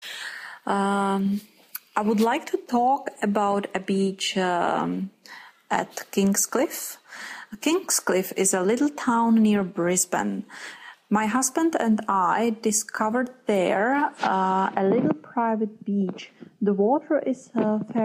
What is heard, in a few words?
band 8 ielts speaker